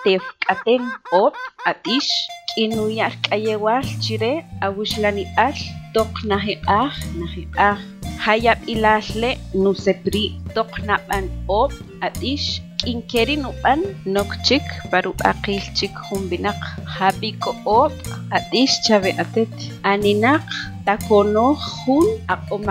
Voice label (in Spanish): Que es coronavirus Tzutujil; prevencion